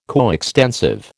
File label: "coextensive", American